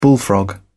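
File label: "bullfrog", British English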